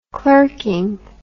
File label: "clerking", English